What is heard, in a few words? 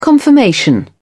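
"confirmation", British English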